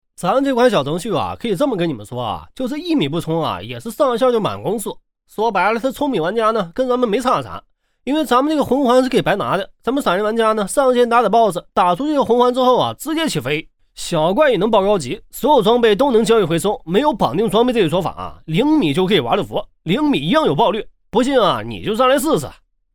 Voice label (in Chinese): 东北方言 男 方言 东北话信息流; 方言配音